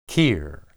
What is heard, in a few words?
"qir", Hebrew